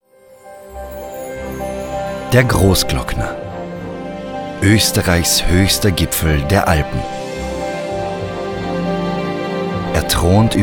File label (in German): Doku